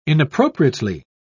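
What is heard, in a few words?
"inappropriately", British English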